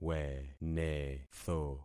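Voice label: "wenetho", concatenated syllables; Affixing experiment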